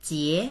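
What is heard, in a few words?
"jie2", Chinese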